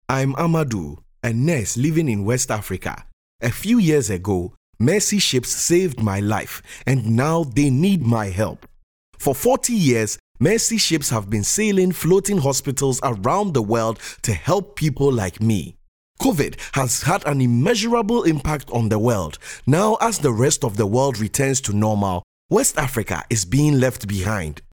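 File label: English African male; Mercy Ships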